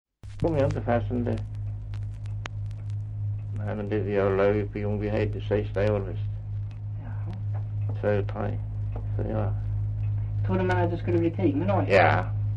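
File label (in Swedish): Östra Ingelstad Skåne, Svenska sagor dialekter; Folkminnen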